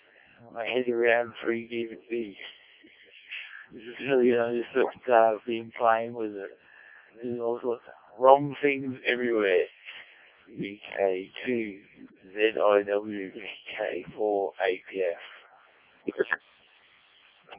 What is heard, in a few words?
decoded speech, 7177KHz